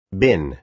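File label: "bin", American English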